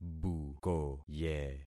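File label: "bukoye", concatenated syllables; Affixing experiment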